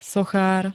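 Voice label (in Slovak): "sochár", Slovak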